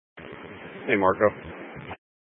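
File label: Incident Tac 3, Scanner audio recording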